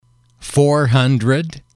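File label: four Hundred, english, male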